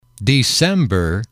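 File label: "december", English, male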